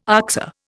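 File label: "oxer", Indian English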